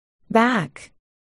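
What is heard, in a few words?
"back", spoken word, articulation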